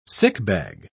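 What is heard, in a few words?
"sickbag", British English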